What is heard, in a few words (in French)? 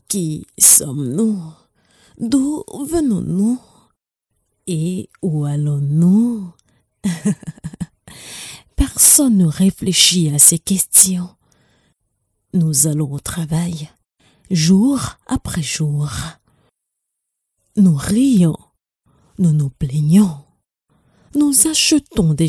Voix Off Narration